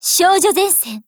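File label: Japanese; AR-15; title call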